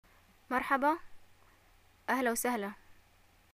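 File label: greeting hi and response, Yemeni Arabic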